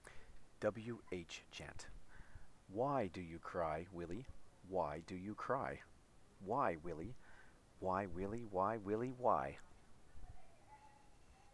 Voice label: wh chant

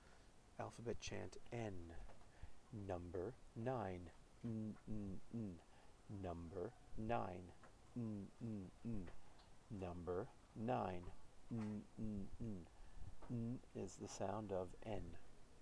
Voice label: alphabet chant 'n' slow

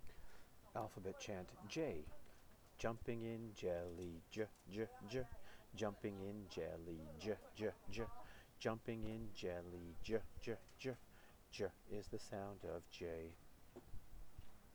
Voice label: alphabet chant 'j' fast

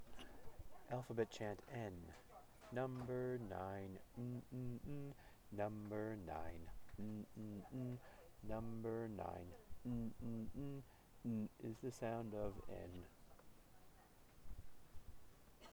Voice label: alphabet chant 'n' fast

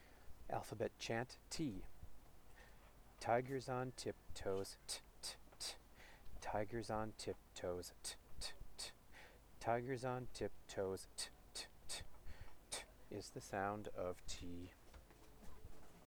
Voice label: alphabet chant 't' fast

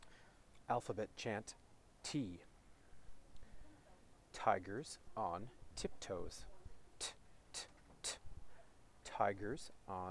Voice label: alphabet chant 't' slow

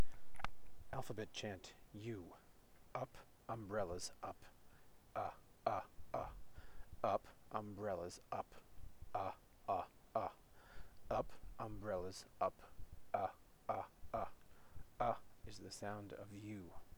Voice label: alphabet chant 'u' slow

